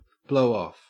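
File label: "blowoff", English, Australian accent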